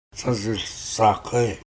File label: Tsazuulh Saahgae, Dane-ẕaa; Grand Camp